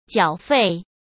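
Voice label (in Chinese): "缴费", Mandarin Chinese; hsk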